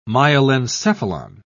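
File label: "myelencephalon", British English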